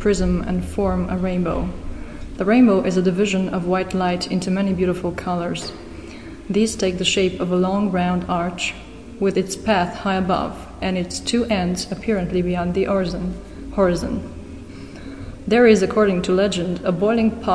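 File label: romania, International Dialects of English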